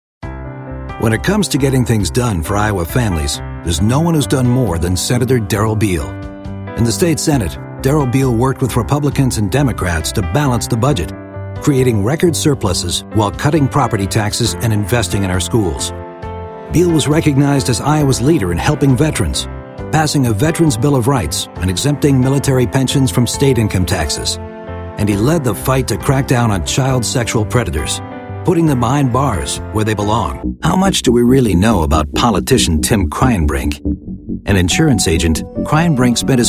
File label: voiceover, trailer; Chicago